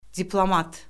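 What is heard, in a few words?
"diplomat", Azerbaijani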